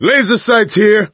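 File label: laser sights, voice, male; Left 4 Dead 2; coach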